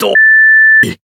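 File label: Speech Quick Commands Caramba Beep, Japanese; World Of Warships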